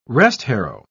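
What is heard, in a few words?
"restharrow", English, British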